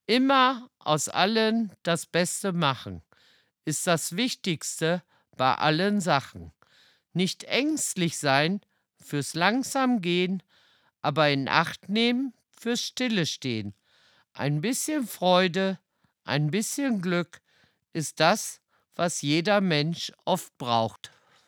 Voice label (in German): Hochdeutsch; Hummelbachpromenade; Nottuln; Plattdeutscher Weg